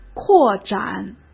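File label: "扩展", Mandarin Chinese; hsk; chinese-flashcards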